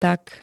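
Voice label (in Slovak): "tak", Slovak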